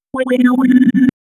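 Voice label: futuristic ai vocal notification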